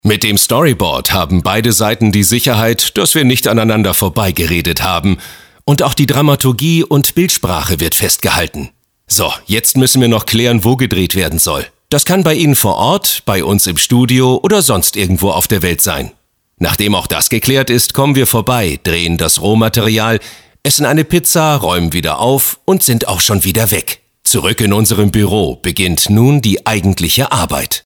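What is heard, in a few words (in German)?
Erklärfilm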